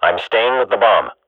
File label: radio bot guard bomb kill, swat; Counter Strike Model Pack; CS:GO